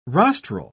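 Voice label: "rostral", British English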